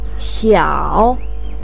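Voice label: "qiao", Chinese pinyin, third tone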